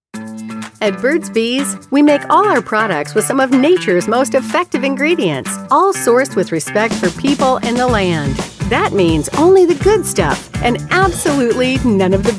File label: Commercial